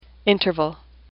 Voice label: "interval", English; AWL